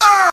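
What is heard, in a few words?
"uh", male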